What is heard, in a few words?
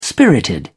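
"spirited", British English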